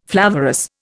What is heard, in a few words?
"flavorous", Indian